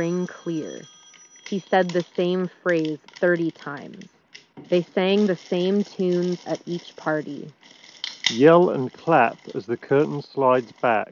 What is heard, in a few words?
Focal Bathys Office microphone sample